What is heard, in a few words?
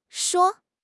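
"shuo1", Chinese; HSK1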